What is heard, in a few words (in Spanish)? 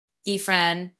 Voice Acting es F0